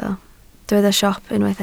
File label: "siop", Welsh